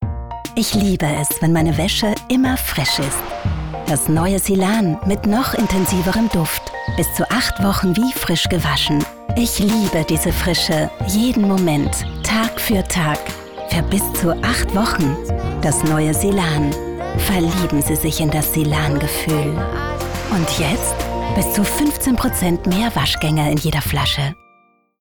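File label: german austrian female commercial; voice demos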